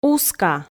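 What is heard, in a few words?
"уска", Komi, female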